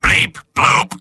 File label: soldier robot; mvm